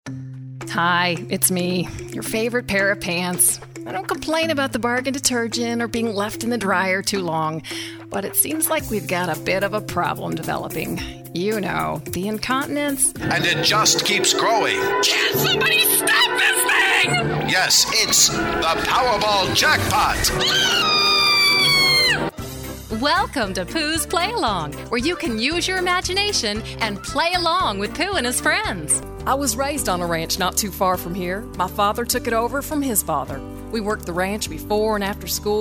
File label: Sample VO Female